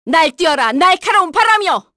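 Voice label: Vox Skill, Korean; Seria; kings raid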